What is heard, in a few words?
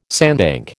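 "sandbank", American English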